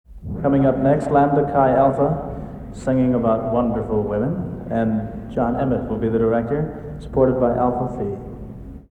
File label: announcement